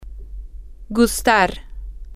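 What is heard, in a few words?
female, spanish; to Like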